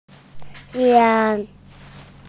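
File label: "xiang1", Mandarin Chinese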